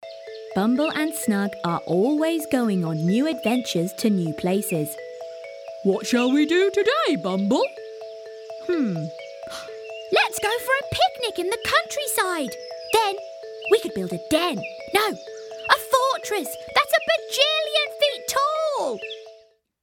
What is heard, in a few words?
Animation